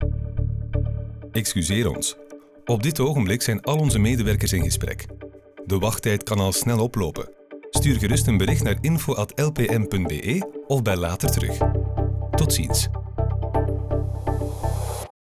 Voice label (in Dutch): time out nl